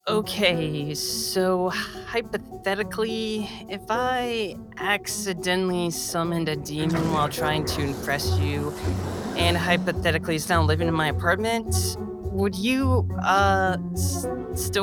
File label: Character